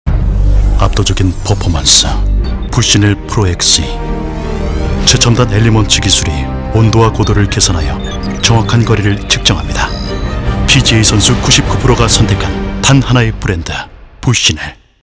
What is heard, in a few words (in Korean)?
무게감있는 골프; 부쉬넬골프